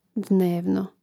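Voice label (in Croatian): "dnevno", Hrvatski; mreznik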